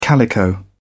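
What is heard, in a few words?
"calico", English, British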